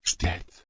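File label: "sth2t", Proto-Indo-European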